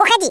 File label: go away, Russian Speech